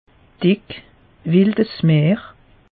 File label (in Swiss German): "dick", alsace; meteo